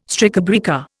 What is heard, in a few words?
"strikebreaker", Indian